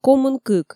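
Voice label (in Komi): "комын кык", Komi, female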